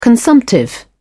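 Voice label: "consumptive", British English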